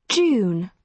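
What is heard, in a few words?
"june", British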